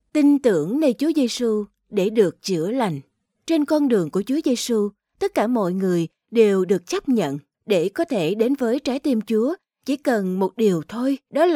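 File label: Vietnamese, female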